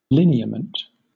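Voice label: "lineament", British English; pronunciations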